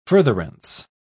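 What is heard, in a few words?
"furtherance", British English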